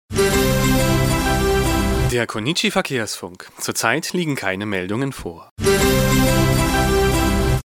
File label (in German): durchsage